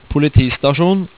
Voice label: "politist", Norwegian

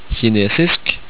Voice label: "kinesisk" Norwegian

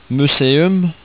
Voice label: "museum" Norwegian; word of day

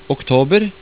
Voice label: "oktober" Norwegian

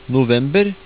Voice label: "november" Norwegian

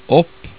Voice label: "opp" Norwegian

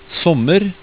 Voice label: "sommer", Norwegian

